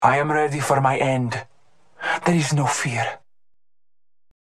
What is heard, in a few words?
Voiceline taunt